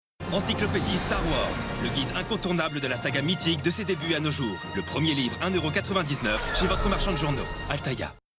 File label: male French commercial; altaya star wars